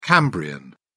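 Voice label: "cambrian", British English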